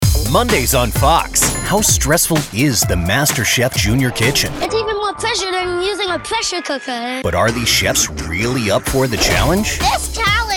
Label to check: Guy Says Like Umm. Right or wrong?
Wrong